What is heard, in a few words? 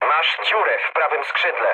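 right wing, mood low, Polish speech; War Thunder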